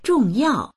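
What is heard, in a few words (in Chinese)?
"zhong yao" Chinese; 重要; 重要である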